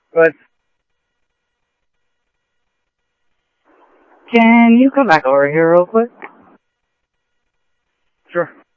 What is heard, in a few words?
Mesa Simulcast, MESA PD, scanner audio; MesaPoliceDep